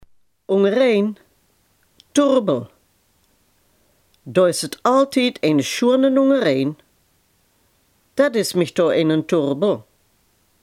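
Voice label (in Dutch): "chaos" Limburgish dialect word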